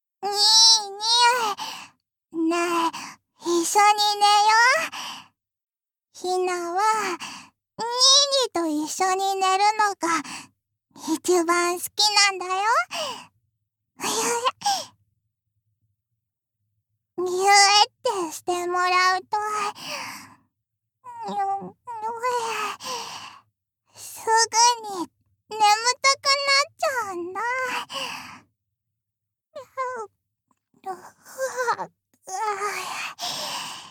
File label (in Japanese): おやすみボイス; 三女